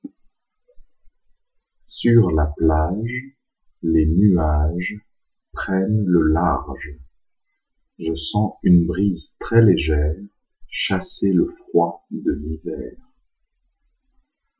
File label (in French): sur la plage pronunciation; french pronunciation; Le ciel est par-dessus le toit